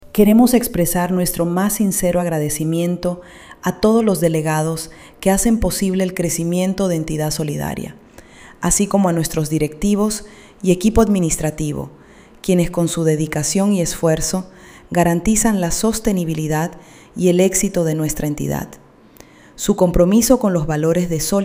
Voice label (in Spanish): femenino; asamblea; ¡Hablemos de tu informe de gestión!